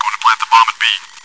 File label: chitchat; svencoop